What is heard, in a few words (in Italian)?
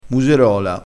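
"muserola", dialetto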